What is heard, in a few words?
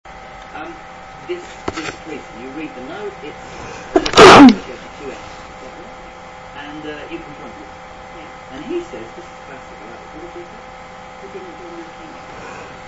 stinking cold, female